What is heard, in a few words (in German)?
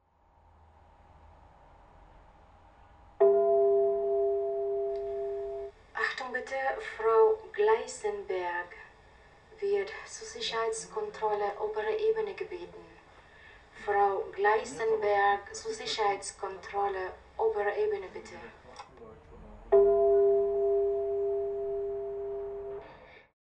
zur Sicherheitskontrolle obere Ebene gebeten, Flughafen Ansagen